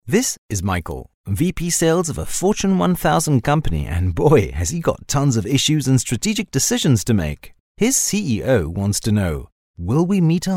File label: english explainer